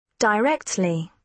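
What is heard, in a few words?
"directly", British English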